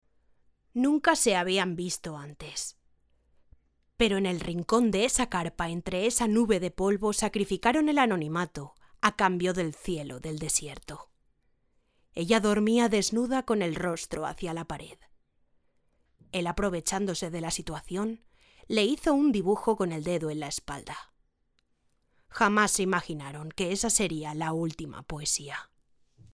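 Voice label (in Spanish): otros España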